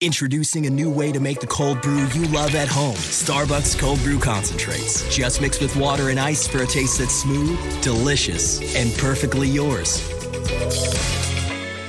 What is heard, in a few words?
Voice Over Reel